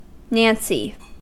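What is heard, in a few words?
"nancy", English, American accent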